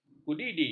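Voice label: "kudidi", Malay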